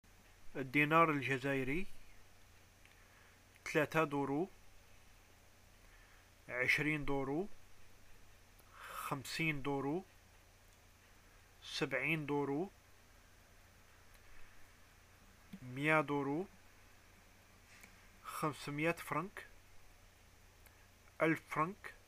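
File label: shopping currency, arabic, Algerian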